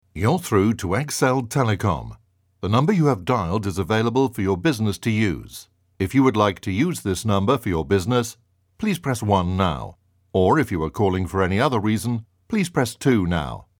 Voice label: IVR

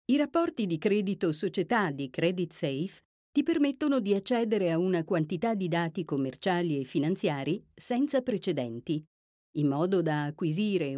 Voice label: Clean Audio e-learning narration

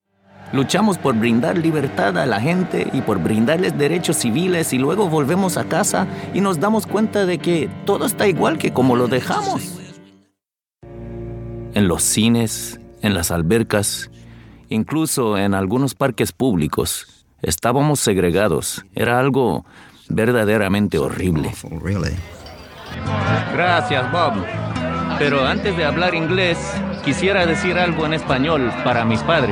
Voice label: Documentary Spanish

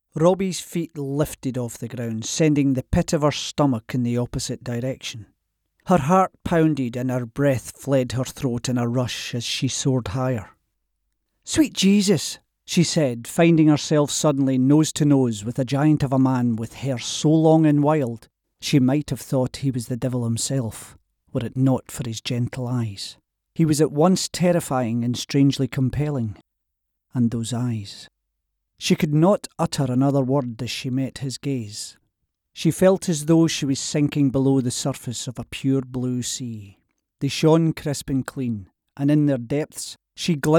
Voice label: audiobook

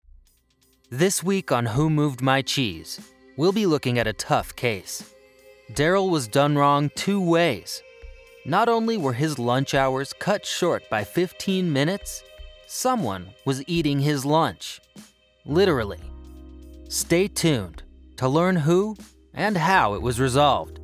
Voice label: Podcast; WMMC

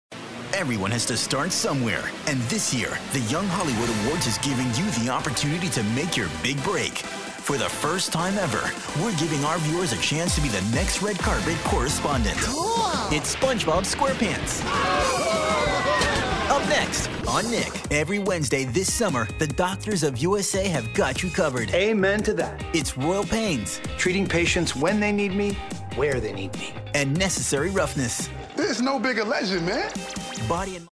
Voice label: Network Promo Trailers

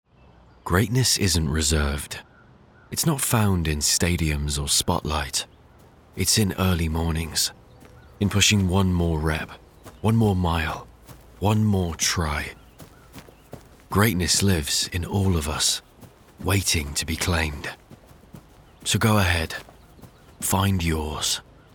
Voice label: Commercial, male

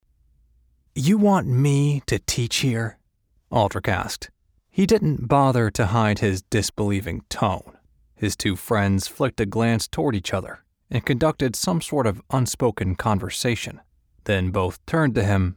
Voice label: Audiobook sample

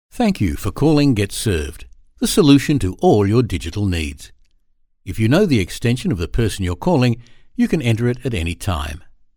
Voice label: IVR Welcome

